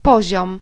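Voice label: level, Basque; gcompris-data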